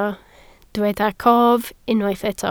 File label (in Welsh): "cof", Welsh